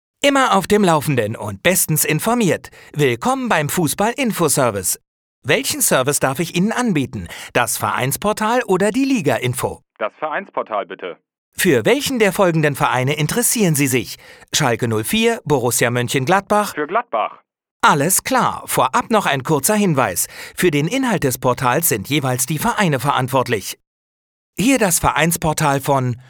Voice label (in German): telefon, deutsch maenner; sprecher